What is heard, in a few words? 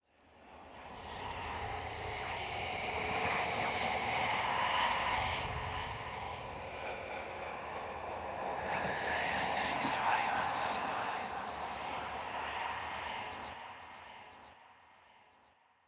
ominous whispering sounds